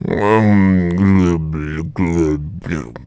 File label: Mumble; Zelda